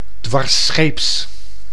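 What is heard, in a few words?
"dwarsscheeps", Dutch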